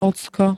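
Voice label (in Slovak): "ocko", Slovak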